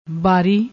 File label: "baari", Punjabi